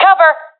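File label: request coverme, professional female; vo; Counter Strike Model Pack; CS:GO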